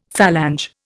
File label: "phalange", Indian; Dictionary